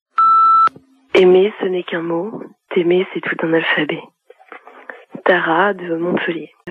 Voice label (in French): Declaration d Amour repondeur